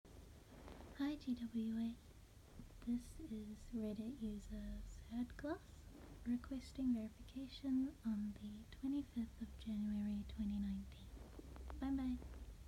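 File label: Female Verification Request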